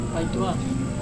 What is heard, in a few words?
"paitua", grandfather, Serewen